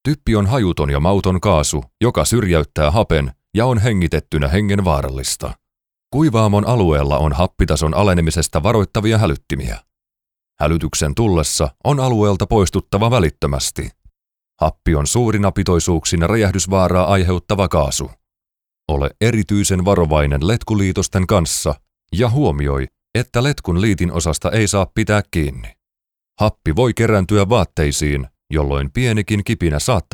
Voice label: finnish training e-learning